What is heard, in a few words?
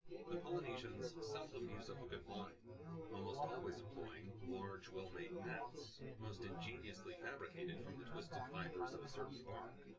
male synth conv